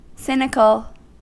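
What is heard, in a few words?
"cynical", American English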